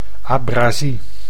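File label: "abrasie", Dutch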